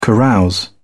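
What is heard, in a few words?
"carouse", British English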